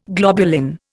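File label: "glom", Indian; Dictionary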